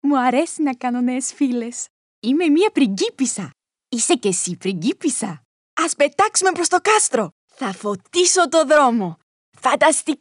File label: female Greek character toy voice; my little pony princess celestia